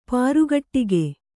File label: "ಪ್ರಕಟಗೈ", kannada